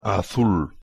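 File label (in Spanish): "azul" Spanish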